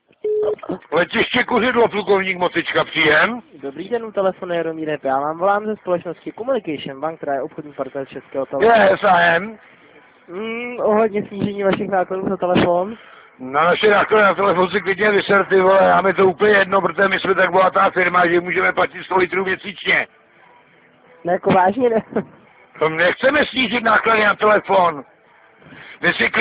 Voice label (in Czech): telefonicka perlicka